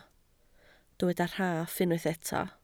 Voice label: "rhaff", Welsh

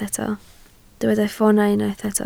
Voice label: "ffoonau", Welsh

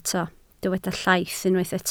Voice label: "llaith", Welsh

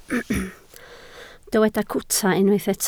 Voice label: "cwta", Welsh

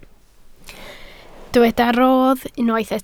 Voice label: "rodd", Welsh

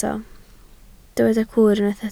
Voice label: "cwr", Welsh

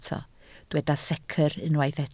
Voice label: "secr", Welsh

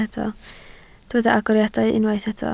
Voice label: "agoriadau", Welsh

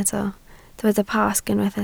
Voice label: "Pasg", Welsh

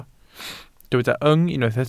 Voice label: "yng", Welsh

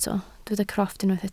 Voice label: "crofft", welsh

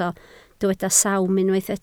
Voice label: "sawm", Welsh

